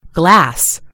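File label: "glass", American English; gcompris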